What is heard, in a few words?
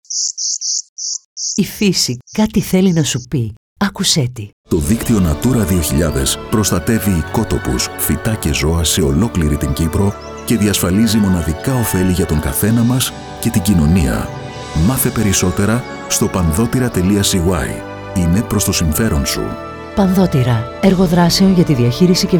Radio; Pandoteira; 10th Radio spot: Natura 2000 – Website